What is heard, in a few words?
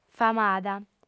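"famada", Sardinian, female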